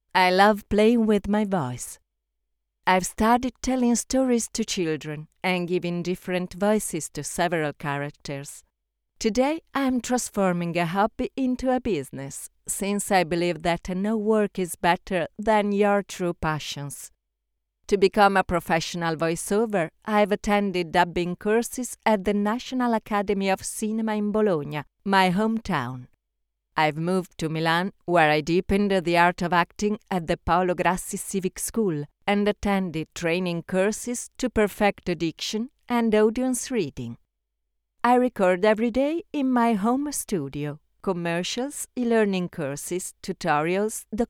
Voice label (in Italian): INGLESE Presentazione